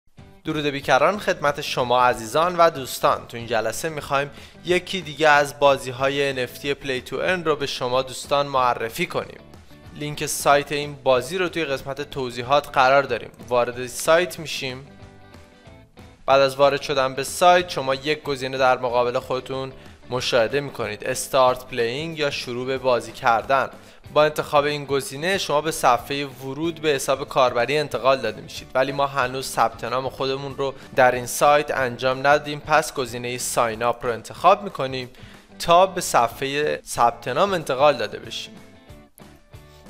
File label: Waves Ducks Voice